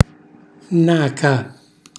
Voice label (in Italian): "naca", Calabrese; cucina calabrese; Glossario